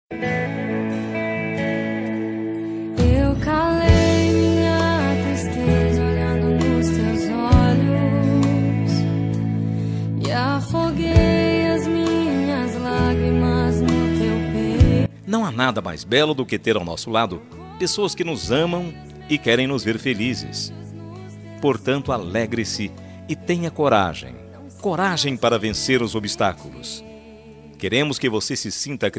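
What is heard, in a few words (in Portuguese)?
birthday EVANGELICO PLURAL male, telemensagem evangelica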